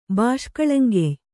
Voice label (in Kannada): "ಬಾಷ್ಕಳಂಗೆಯ್", Kannada